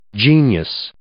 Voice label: "genius", English

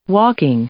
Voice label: "walking", English; noun

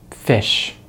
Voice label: "fish", English; Consonants in American English; easypronunciation

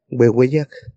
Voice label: "ueueyak", Náhuatl; largo; long

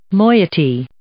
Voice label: "moiety", English

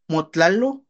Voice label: "motlalo", Náhuatl; corre; runs

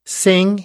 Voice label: "sing", English; verb

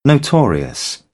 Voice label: "notorious", British; AmericanBritish

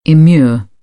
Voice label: "immure", British; AmericanBritish

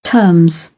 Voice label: "terms", British English

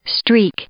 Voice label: "streak", English; noun

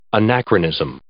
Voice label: "anachronism", English

